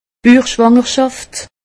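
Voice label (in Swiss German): "buchschwangerschaft", Haut-Rhin; medecine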